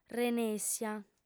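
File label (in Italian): "renèssia", lingua sarda, fem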